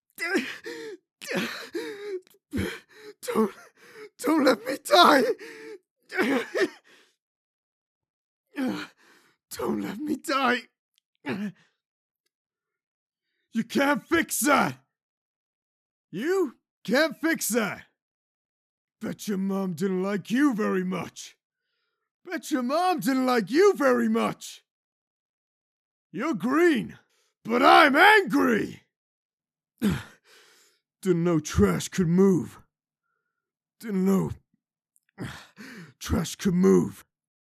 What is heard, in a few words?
Recruit Male